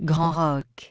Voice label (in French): "Castle q", French; castle queenside; grand roque